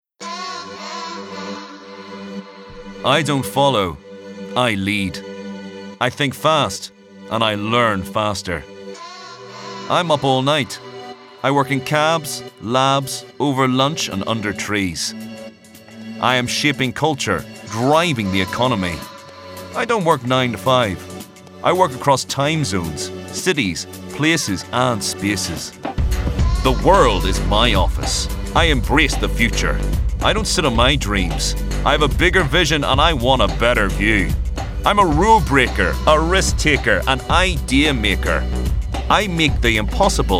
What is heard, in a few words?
northern irish accent commercial; huawei